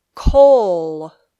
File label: "coal", English